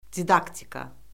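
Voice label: "didaktika", Azerbaijani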